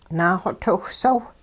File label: I m sweeping with a modern broom, hupa